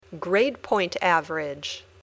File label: "grade point average", English